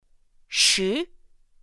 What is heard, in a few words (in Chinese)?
"shí", pinyin; pinyin2; pinyinji